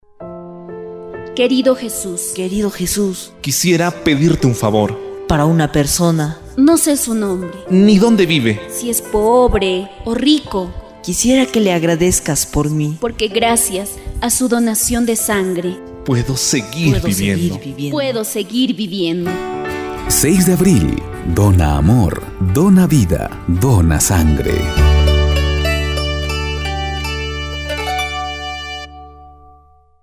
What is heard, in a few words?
spot